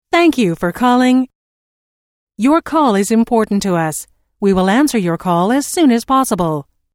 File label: Telephone prompt: Thank you for calling your call is important to us we will answer your call as soon as pos, Recorded Voice; Thank you for calling - All our representatives are engaged with other calls